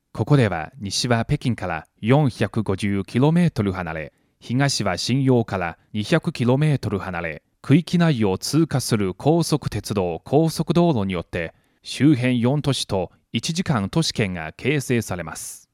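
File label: Japanese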